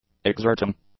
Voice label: "exerting", English; nouns

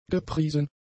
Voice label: "gepriesen", German; adjectives

